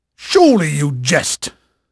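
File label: English male, voice; Pirates Of The Caribbean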